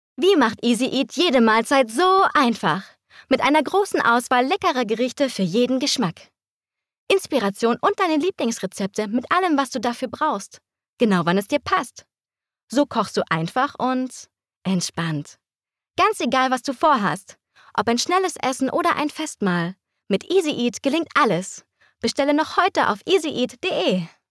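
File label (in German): Werbung, Sprecherin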